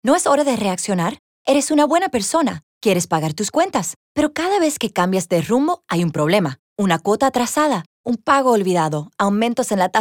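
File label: Spanish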